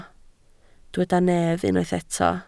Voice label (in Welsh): "nef", Welsh